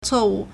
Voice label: "cuo4 wu4", Chinese